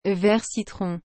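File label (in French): "vert citron", French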